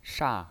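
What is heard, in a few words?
"sha", Mandarin Chinese pinyin, fourth tone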